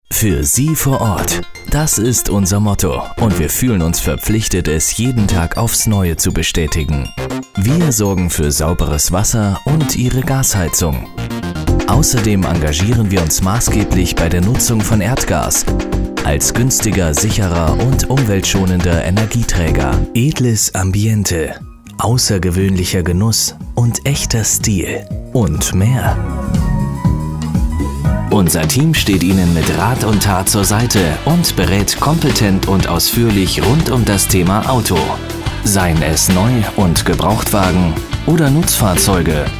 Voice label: imagefilme

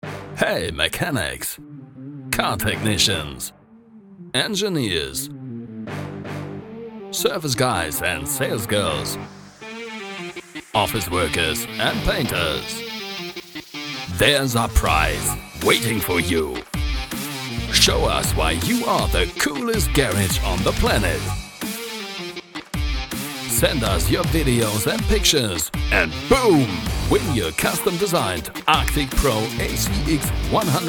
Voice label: social media englisch

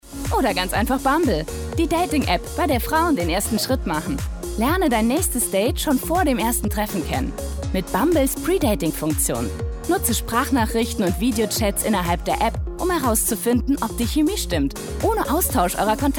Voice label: werbung; bumble

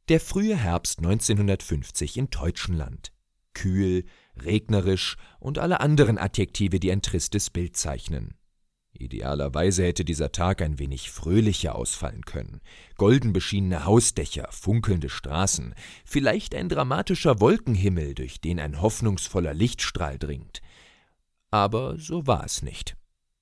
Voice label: erzaehlung

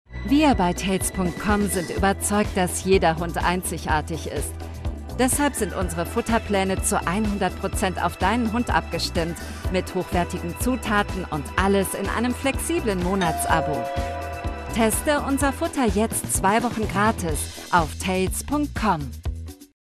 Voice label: TV Spot Hundefutter zugewandt

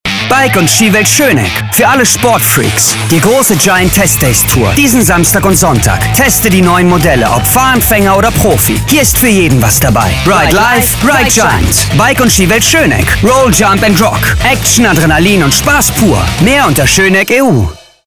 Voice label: spot bike; schoneck

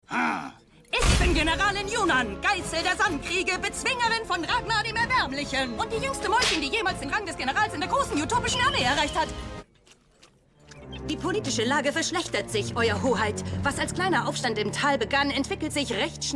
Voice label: Synchron Trickstimme; amphibia; general in yunnan; Muttersprache Deutsch

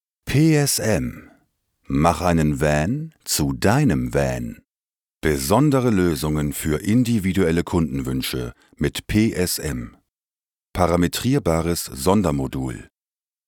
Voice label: erklarfilm

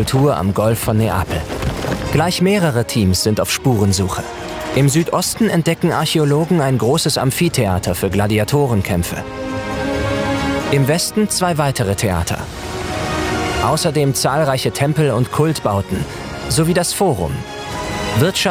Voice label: doku pompeji

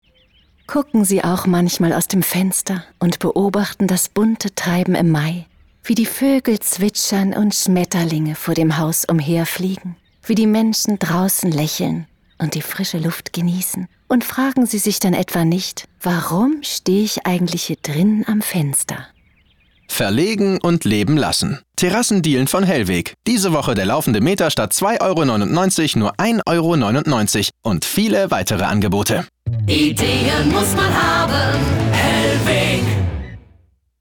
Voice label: Funkspot; hellweg; fenster

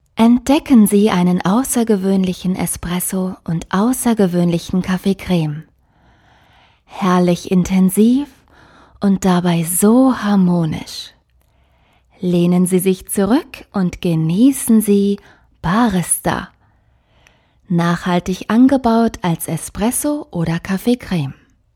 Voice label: werbung

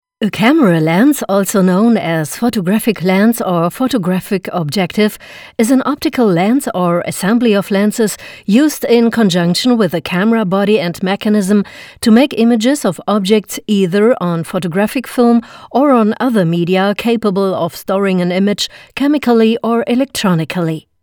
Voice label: englische sprachprobe

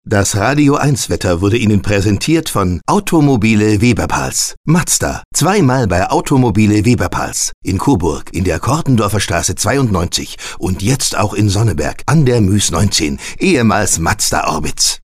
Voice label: funkwerbung cold voice; Muttersprache Deutsch

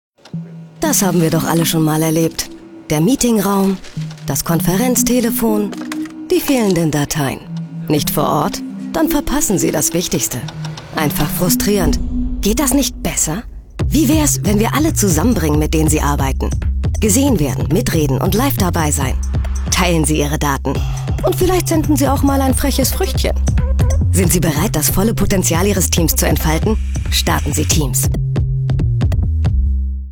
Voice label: tv spot; Muttersprache Deutsch; microsoft

